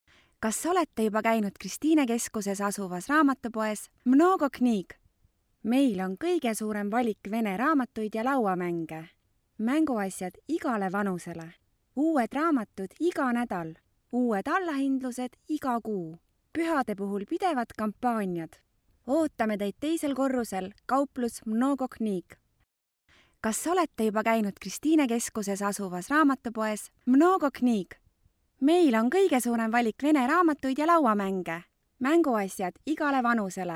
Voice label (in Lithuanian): moteris, estu balsai